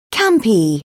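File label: "campy", British English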